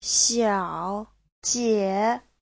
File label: "xiao3 jie3", Chinese